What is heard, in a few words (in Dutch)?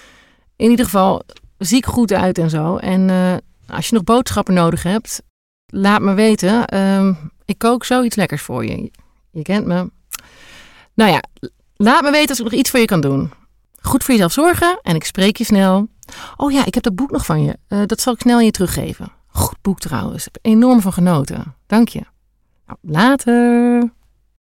Voicemail bericht Naturel